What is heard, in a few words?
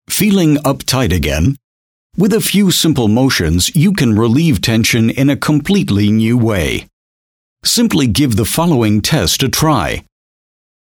elearning